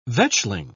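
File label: "vetchling", British English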